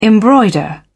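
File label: "embroider", British English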